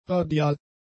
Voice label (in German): "radial", German; adjectives